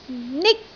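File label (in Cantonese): "nik1", Cantonese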